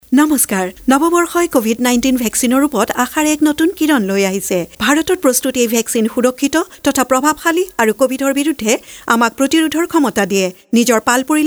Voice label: Assamese Caller Tune